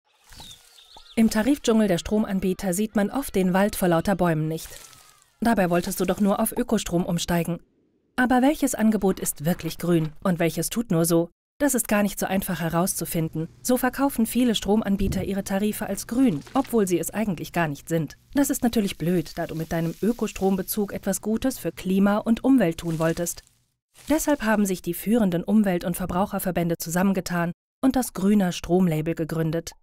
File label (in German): Erklaerfilm; Gruener Strom; Label eV